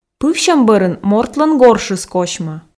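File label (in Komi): пывсьӧм бӧрын мортлӧн горшыс косьмӧ, Komi, female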